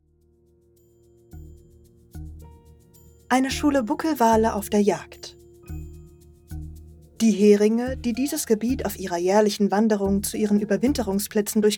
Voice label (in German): Dokumentation Wale; LOFT VOICES